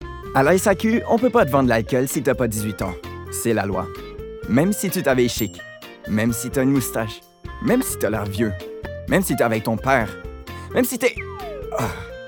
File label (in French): Demo Publicite